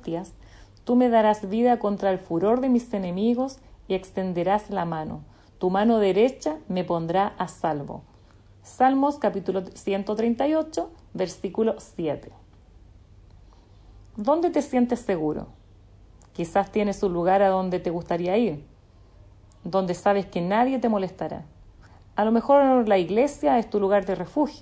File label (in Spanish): devocional